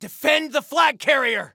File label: Defend Flag Carrier, Soldier Male; Soldier Male 01